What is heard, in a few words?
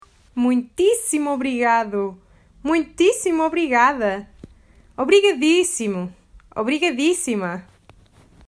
Muitíssimo Obrigada Obrigadíssima, Portuguese